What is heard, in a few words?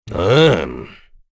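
Vox Deny, Korean; Dakaris; kingsraid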